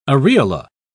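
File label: "areola", English, British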